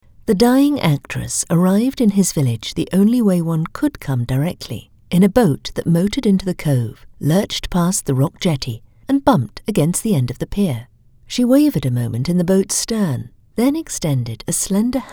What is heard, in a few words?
audiobook